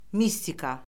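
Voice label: "mistika", Azerbaijani; Orfoqrafiya Lüğəti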